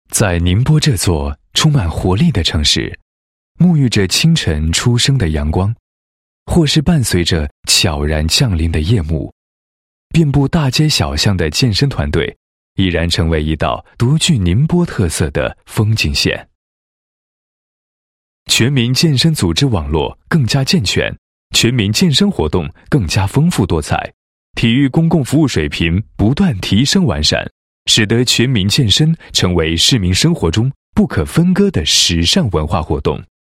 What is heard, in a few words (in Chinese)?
男-宣传片; 宁波百万市民健身大联动